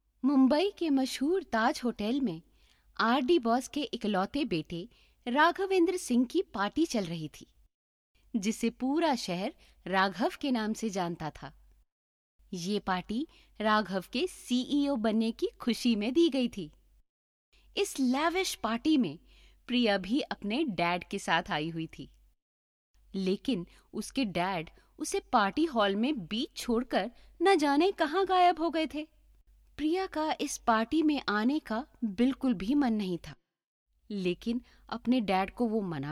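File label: hindi audiobooks, Weiblich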